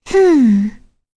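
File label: Vox Think, voices heroes English; Kirze; kingsraid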